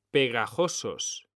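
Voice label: "pegajosos", Spanish, male; mekanta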